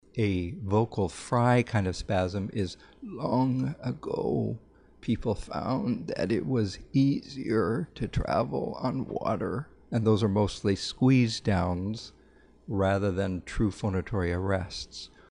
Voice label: Tonic Vocal fry variant